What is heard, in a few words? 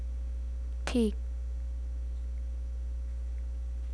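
"pick", spoken word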